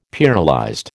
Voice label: "pearlized", American

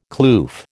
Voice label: "kloof", American

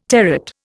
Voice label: "territ", Indian

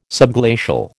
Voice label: "subglacial", American

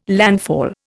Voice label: "landfall", Indian

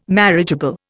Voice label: "marriageable", Indian

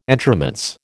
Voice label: "entremets", American

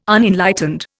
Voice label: "Unenlightened", Indian

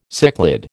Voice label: "cichlid", American

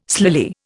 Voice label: "slily", Indian

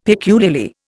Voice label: "peculiarly", Indian; Dictionary

